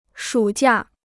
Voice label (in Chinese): "暑假", Chinese